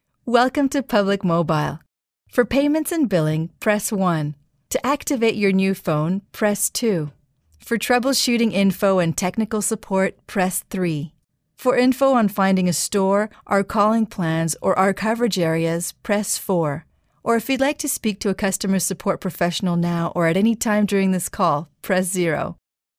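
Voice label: French Canadian English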